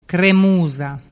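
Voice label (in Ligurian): "cremusa", Ligurian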